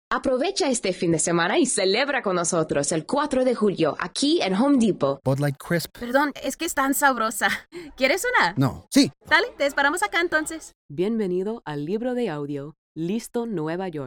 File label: Spanish; voiceover